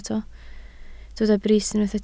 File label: "brys", Welsh